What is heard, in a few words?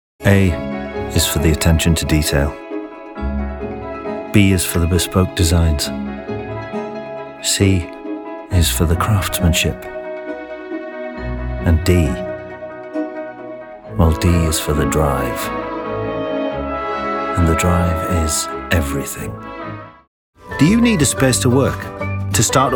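British, TV commercial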